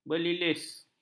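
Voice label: "belibis", Malay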